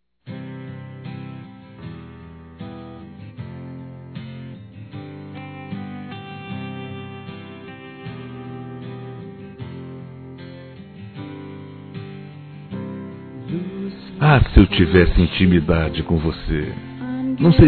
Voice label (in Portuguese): Conquista Romantica Forte Masculino; Telemensagens; Conquistas Cantadas paqueras; Telemensagens 2017